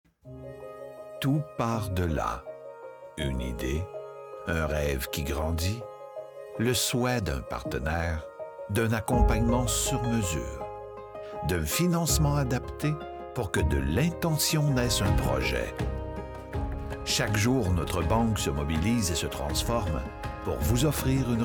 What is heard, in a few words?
Deep calm voice